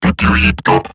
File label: Surprised Robot Large, Barks, Battle; Warframe; Eximus Corpus Robotic Large